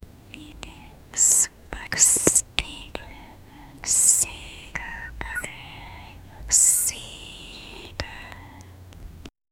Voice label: a girl whispers he is